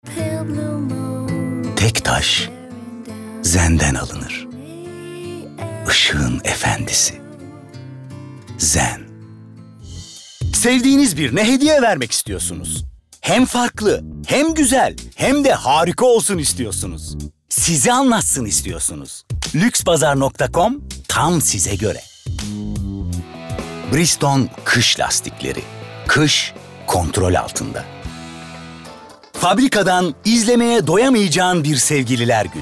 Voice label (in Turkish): REKLAM